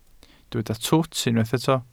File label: "twt", Welsh